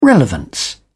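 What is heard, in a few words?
"relevance", British English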